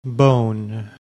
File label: "BONE", English